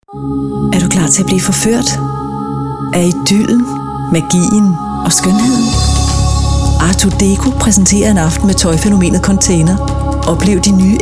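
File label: danish female commercial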